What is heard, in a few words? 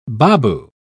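"baboo", British English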